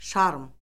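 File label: "şarm", Azerbaijani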